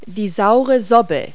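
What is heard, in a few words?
"soursop", English word; Multi-Lingual Talking Picture Dictionary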